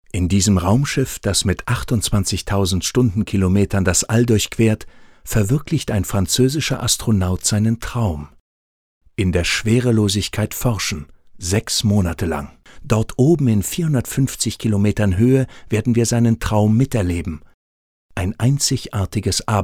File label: voices docu allemand; pesquet